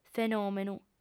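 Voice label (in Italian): "fenòmenu", lingua sarda; Fàbrica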